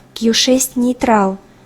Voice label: girl